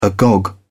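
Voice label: "agog", British English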